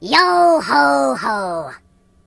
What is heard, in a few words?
"Excellent", Pirate